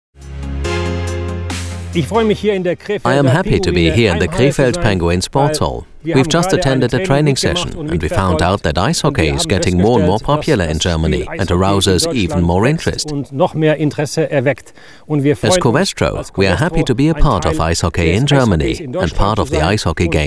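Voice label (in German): englisch O-Ton ice-hockey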